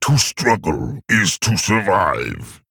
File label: Male Orc Ogre Play